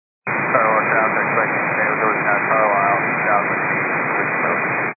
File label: 122.925 MHz; scanner radio